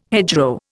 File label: "hedgerow", Indian